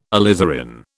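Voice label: "alizarin", British English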